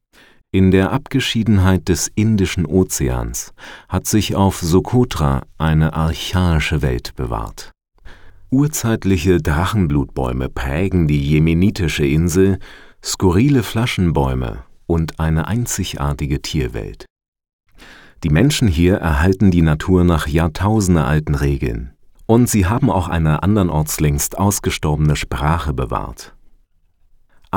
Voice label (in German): reportage